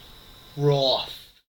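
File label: "wroth", US English; pronunciations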